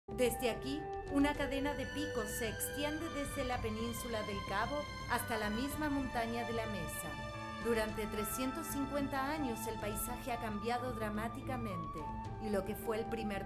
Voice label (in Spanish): documental voz en off